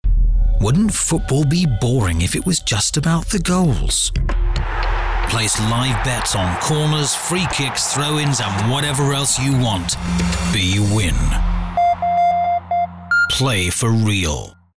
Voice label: RADIO

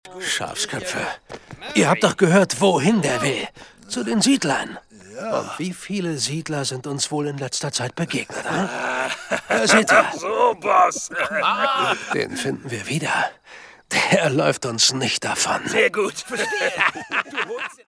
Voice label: Cowboy; LOFT VOICES

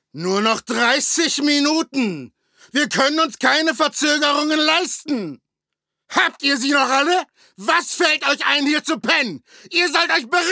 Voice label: Anime

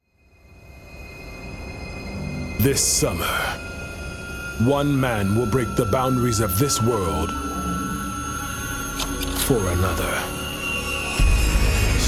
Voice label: Movie Trailer; Matrix

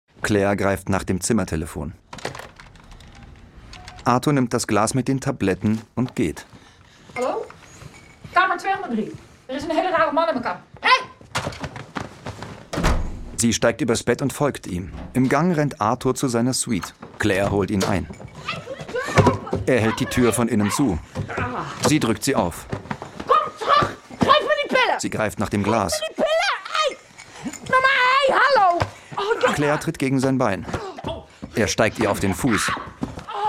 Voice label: audiodescription; Arthur Und Claire

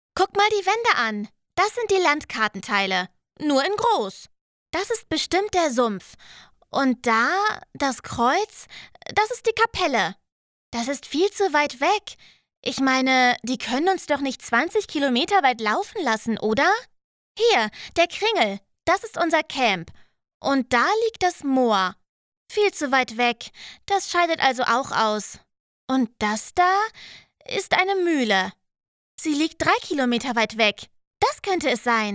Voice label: Voice Over Teenager

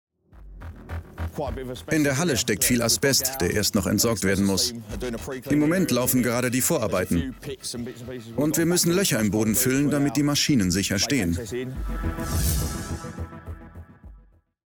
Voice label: VOICE OVER

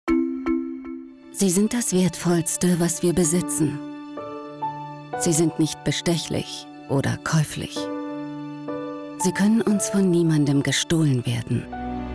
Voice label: deutsch female; verbotene liebe; alzheimer

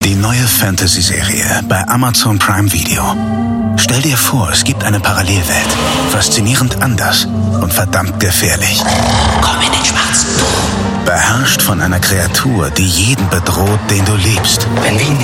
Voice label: Trailer; Der Greif

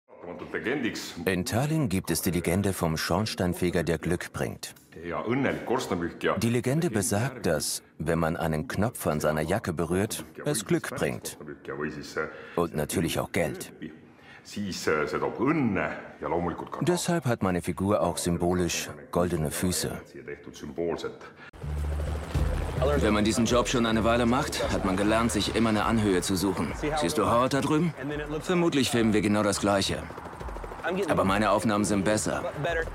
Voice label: voiceover mix

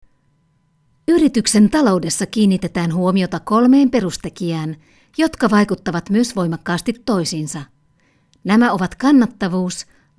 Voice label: e-learning

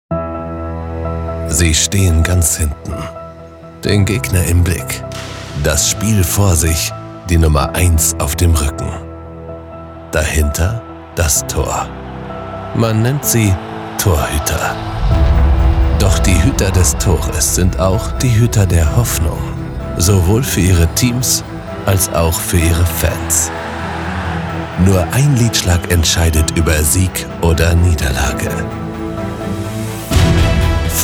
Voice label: Dokutrailer Soccer; LOFT VOICES

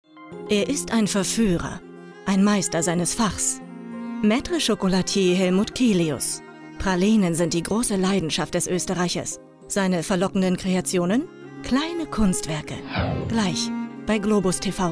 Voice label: trailer

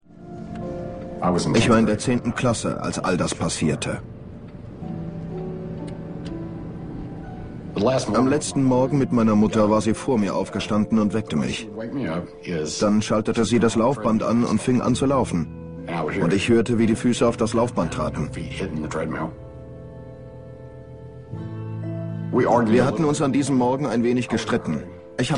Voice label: Voiceover; Unsolved Mysteries

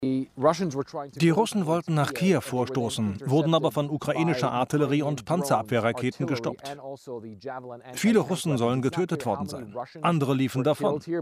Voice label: VoiceOver; ZDF Zoom; CNN; AlJazeera

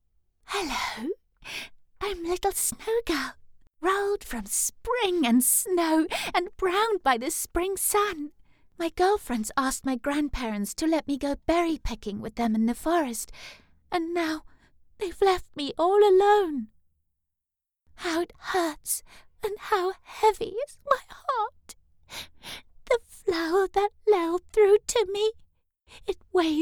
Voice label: Animation; Snegurochka

